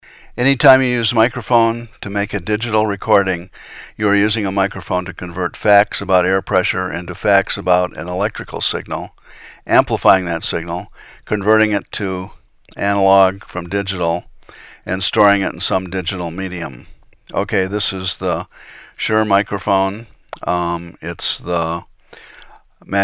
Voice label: no noise red